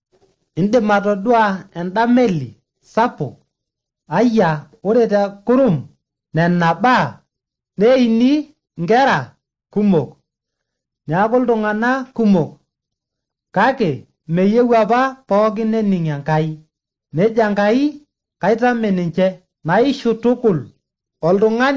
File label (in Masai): maasai bsv nt drama; good news; bible